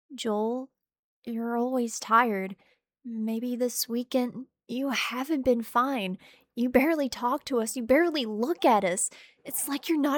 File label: Audiobook & Narration(s)